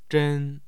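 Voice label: "zhen1", Mandarin Chinese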